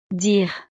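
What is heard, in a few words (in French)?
"dire", French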